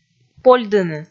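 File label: "польдыны", Komi, female; kpv audio dict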